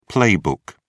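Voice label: "playbook", British English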